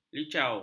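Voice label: "licau", Malay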